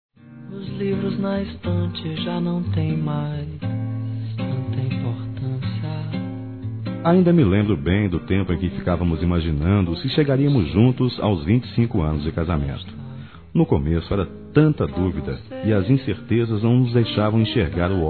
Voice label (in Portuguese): Bodas de Prata Masculino, Telemensagens; Bodas e Casamento